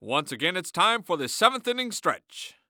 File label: Sports Human Voice Male Baseball Announcer; AdobeCC